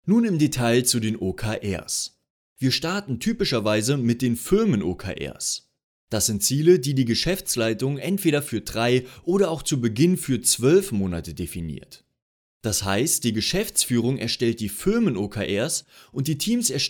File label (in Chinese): 线上学习课程